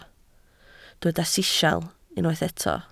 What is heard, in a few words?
"sisial", Welsh